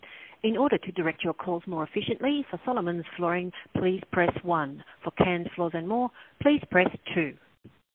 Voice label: Bad recording example